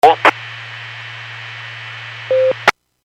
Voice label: Skywarn 146.67; Stevens Point